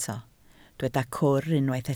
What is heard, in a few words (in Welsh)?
"cwr" Welsh